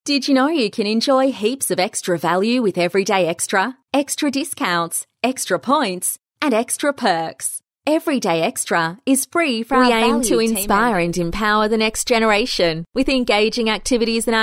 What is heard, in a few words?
Narration